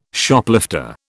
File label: "Shoplifter", British